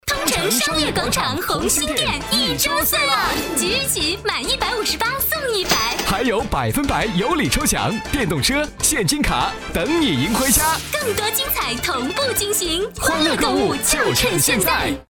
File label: girl ad, Chinese